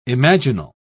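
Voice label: "imaginal", British English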